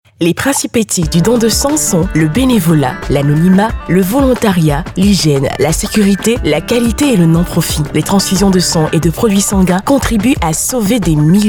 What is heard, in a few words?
spot radio